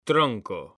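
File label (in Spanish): "TRONCO", Spanish, male; mekanta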